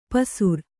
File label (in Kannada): "ಪಸುರ್", Kannada